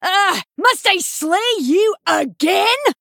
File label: Female Dwarf Play